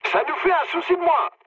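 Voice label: French player killed enemy mood med; War Thunder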